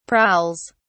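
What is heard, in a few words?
"prowls", British English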